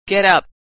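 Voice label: "get up" verb, American English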